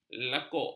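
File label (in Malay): "lekuk", Malay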